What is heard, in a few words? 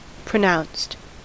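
"pronounced", US English; pronunciations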